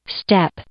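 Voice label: "step", English; noun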